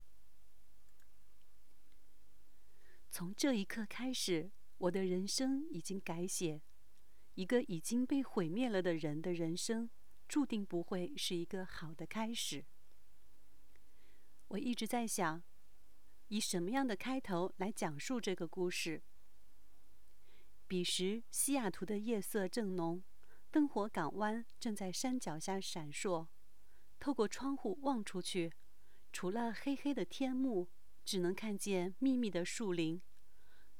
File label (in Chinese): 读小说